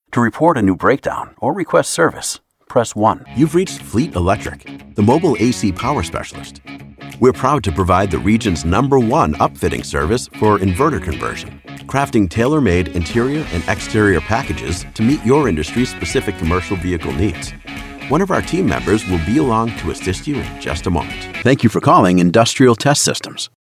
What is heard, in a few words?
Voice Messaging on Hold Marketing IVR Telephony Phone Greeting